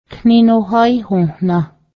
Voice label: lets go wash, Cayuga; kninihaíhǫhnah; Let's go wash. (laundry)